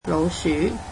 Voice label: "mouse", Cantonese

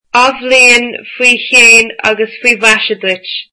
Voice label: Happy new year, irish

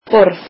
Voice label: icelandic table

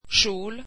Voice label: swedish skirt

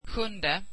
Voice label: swedish seventh

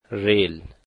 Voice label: "train", Dari

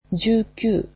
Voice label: "nineteen", japanese

